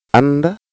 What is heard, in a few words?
"and", Wolof